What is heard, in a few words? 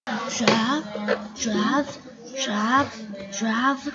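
"jab", spoken word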